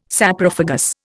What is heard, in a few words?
"Saprophagous", Indian